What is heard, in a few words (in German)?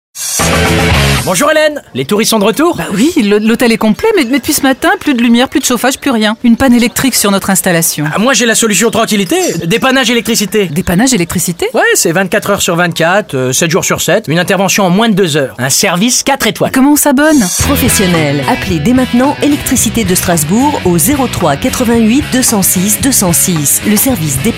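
französische Sprecherin; french voice-over talent female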